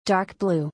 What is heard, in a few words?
"Dark Blue", English; color-in-english